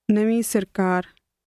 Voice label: new government, punjabi; English: new government